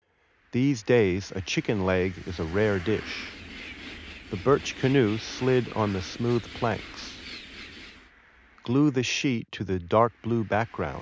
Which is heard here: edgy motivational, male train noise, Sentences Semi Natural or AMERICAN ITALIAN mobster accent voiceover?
male train noise